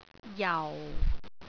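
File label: "jau4", Cantonese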